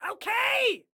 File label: command acknowledged, excited guy